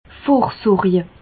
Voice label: "vorsorje", Bas Rhin; medecine; prévenir

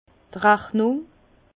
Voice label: "rachnung", Bas Rhin; vin; facture

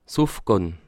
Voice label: "ufgann", alsace

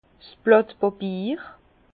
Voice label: "blatbapier", Bas Rhin; vin; feuille de papier

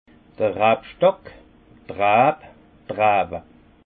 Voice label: "rabstock", Alsace; la vigne; vin

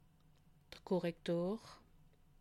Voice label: "korrektor", alsace

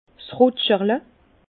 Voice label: "rutscherle", Bas Rhin; vin; verre de dégustation

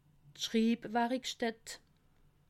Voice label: "schribwarikstatt", alsace

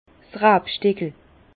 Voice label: "rabstickel", Bas Rhin; vin; lopin de vigne

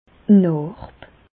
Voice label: "narb", Bas Rhin; medecine; cicatrice

